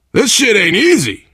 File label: player transition close, player survivor voice biker; source engine; left 4 dead 2